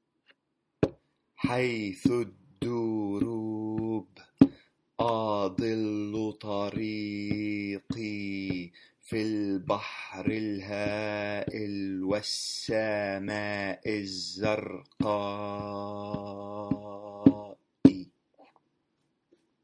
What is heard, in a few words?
diction bars; Songs of the East